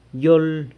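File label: "yol", yola, San Lucas Quiaviní Zapotec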